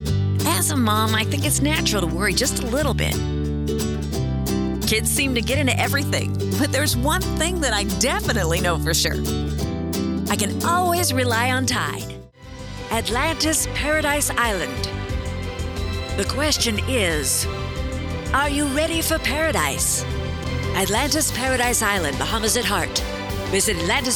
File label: French Commercial